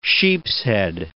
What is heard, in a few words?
"sheepshead", English